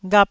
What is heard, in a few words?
"ghap1", Taiwanese syllable